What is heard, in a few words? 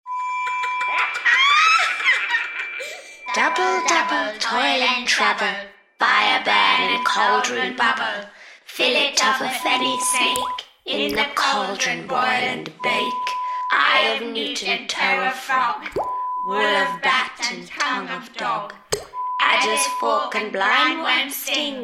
The Witches Spell, Horror Sound Effect